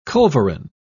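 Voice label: "culverin", British English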